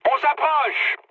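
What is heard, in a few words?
near waypoint, mood medium, French; War Thunder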